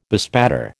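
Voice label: "bespatter", American English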